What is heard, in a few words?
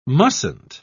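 "mustn't", British English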